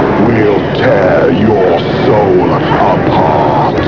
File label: tear ur soul da prt, Halloween Voices